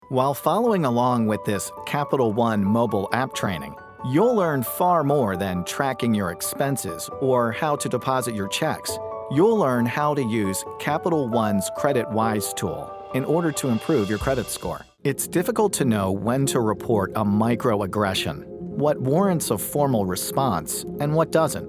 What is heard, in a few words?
Elearning